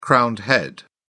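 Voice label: "crowned head", British English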